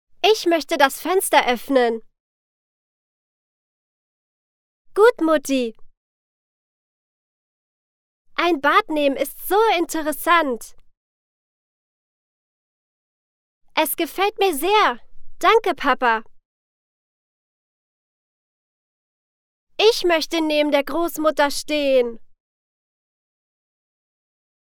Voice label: Kids Sample Words